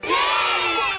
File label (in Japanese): ルー（子供のみ）; ドナルド